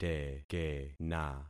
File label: "tekena", concat syllables; Affixing experiment